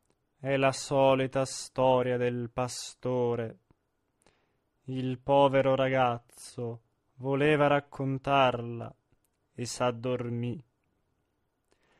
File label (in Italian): espressivo; Cilea; L arlesiana